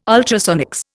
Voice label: "ultrasonics", Indian

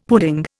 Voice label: "pudding", Indian; Dictionary

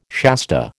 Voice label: "Shasta", American

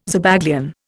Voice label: "Zabaglione", Indian

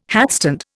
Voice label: "hatstand", Indian

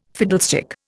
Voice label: "fiddlestick", Indian

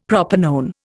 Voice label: "propanone", Indian; Dictionary

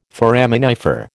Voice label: "foraminifer", American

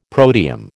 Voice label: "protium", American

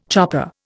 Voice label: "chopper", Indian

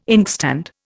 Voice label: "inkstand", Indian

